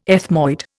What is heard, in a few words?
"ethmoid", Indian